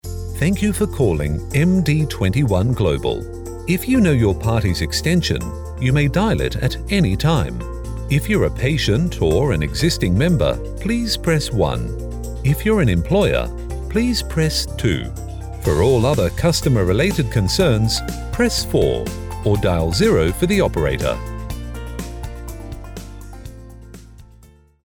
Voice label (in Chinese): 外籍英男 电话应答系统